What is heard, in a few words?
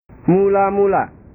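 "mula mula", Indonesian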